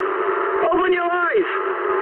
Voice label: eng player friendly fire mood high, speech eng